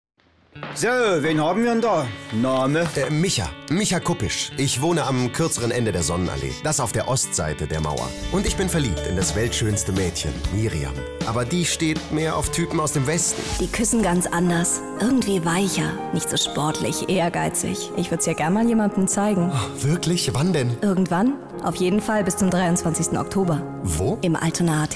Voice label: Radiospot; Sonnenallee; Alsterwelle

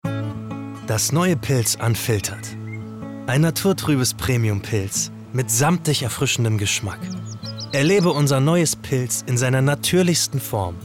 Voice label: Werbung Bier, Commercial, German

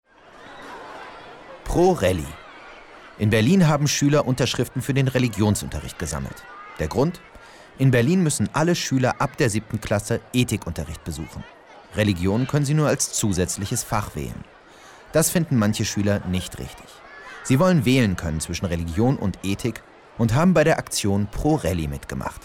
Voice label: moderation

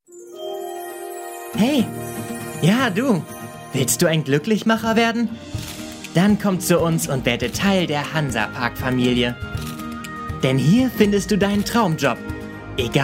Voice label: Sprachprobe Werbespot

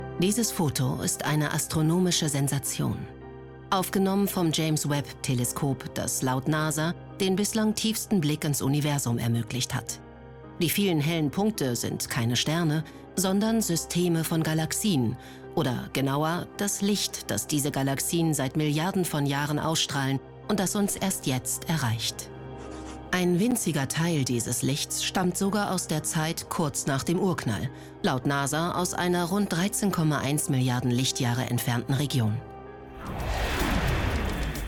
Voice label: Weltall Kommentar; Scobel 3Sat

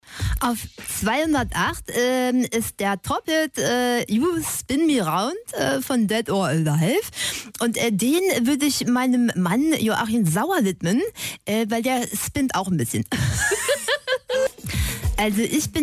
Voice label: radio

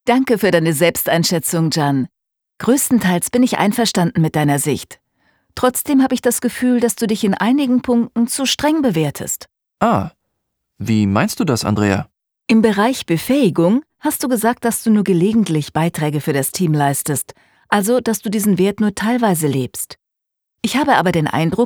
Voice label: E Learning Schweizer Armee; LOFT VOICES

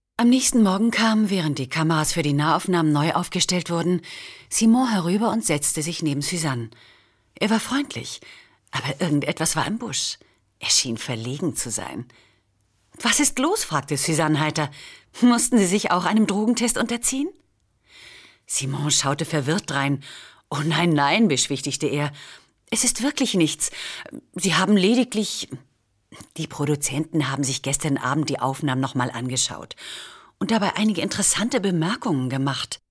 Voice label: hoerspiel

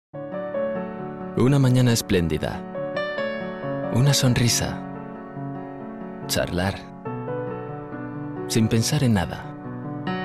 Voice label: spanisch male voice over industriefilm espressomaschine; wmf

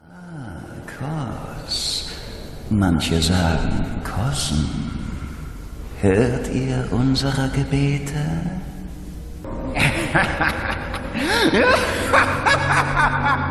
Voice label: unheimlich; bloodborne; LOFT VOICES

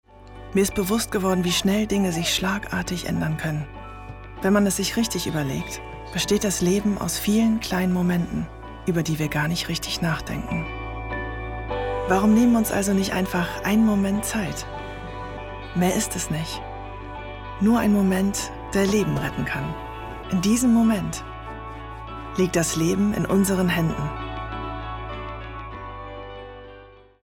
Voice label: Deutsch; B Braun

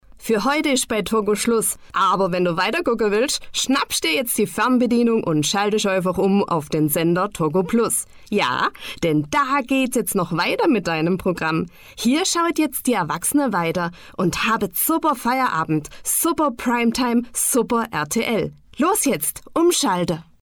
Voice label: schwabisch; toggo plus; LOFT VOICES

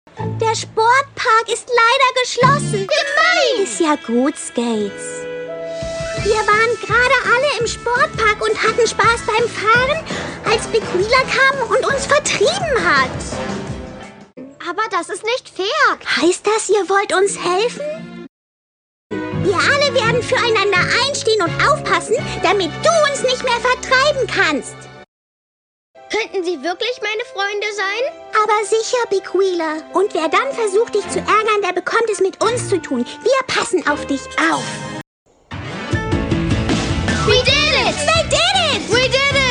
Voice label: synchron trickfilm dreirad; dora

